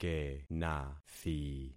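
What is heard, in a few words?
"kenathi", concatenated syllables; Affixing experiment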